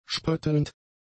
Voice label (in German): "spöttelnd", german; adjectives